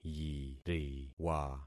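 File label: "yiriwa", concatenated syllables; Affixing experiment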